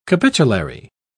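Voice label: "capitulary", English, British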